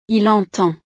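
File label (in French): "il entend", French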